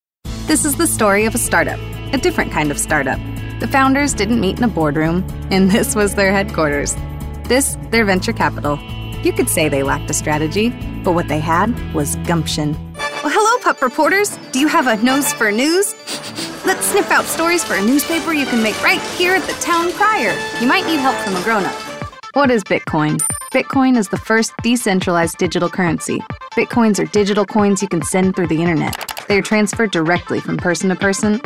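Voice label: English Narration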